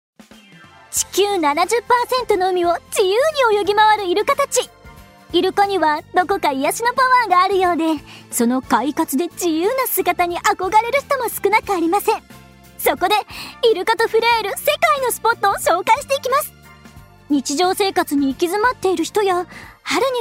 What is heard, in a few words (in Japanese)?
ナレーション